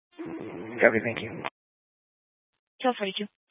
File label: Sheriff Dispatch, scanner; Mesa County